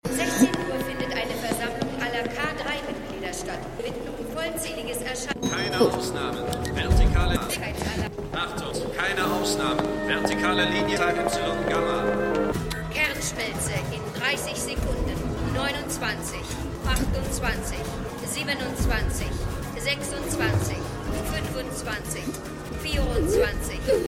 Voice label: Durchsagen Computerstimme; Stimmproben; Eureka

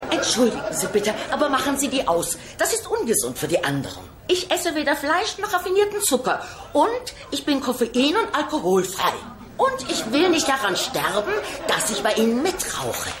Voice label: Frau; Stimmproben

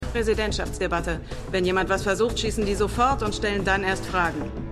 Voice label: Campus Wachfrau

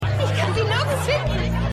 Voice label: Frau

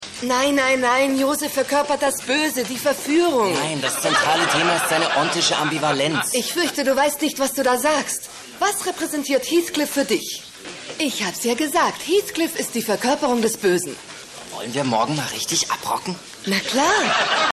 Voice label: Studentin Student

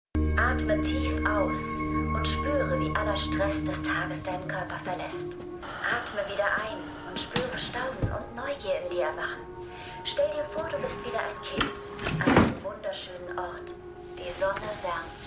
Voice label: Sprecherin; HTGAWM

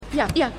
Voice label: Assistentin; Kendalls Assistentin; Succession

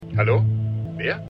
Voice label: Telefonstimme; Shining Girls

